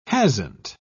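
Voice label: "hasn't", British English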